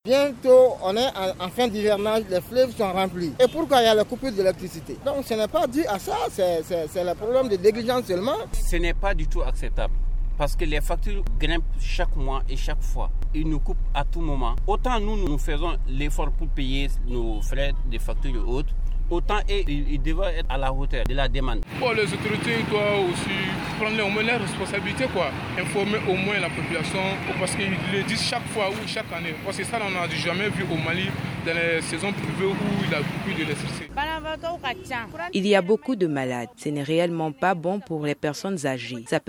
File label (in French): MICRO TROTTOIR fr; EDM